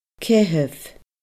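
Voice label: "caitheamh", Scottish Gaelic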